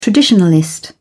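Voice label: "traditionalist", British English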